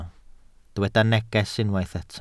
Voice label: "neges", Welsh

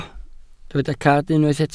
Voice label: "cad", welsh

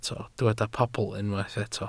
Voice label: "pobl", Welsh

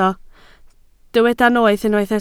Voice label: "noeth", Welsh

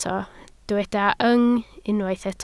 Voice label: "yng", Welsh

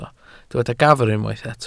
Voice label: "gafr", Welsh

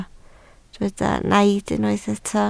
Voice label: "naid", Welsh

